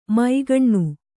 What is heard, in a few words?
"ಮೈಗಣ್ಣು", Kannada